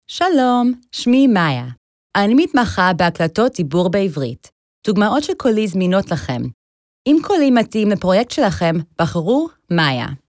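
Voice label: Hebrew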